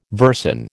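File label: "versin", American English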